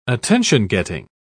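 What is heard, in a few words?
"attention-getting", English, British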